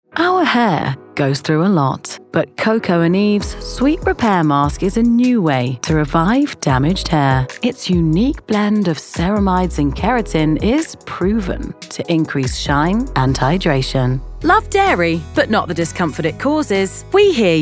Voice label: Commercial Showreel